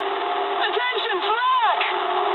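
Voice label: damaged anti-aircraft mood high, speech English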